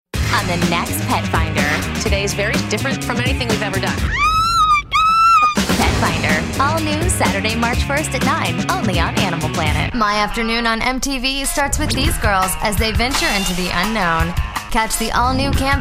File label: TV Promo